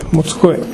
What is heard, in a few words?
"montukoe", minamiyamagata